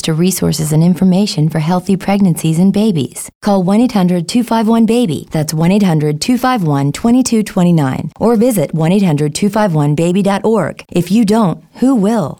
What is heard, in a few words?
Prenatal Spot English